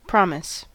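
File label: "promise", American English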